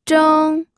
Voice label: "zheng1", pinyin